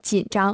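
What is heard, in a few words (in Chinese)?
"紧张" Chinese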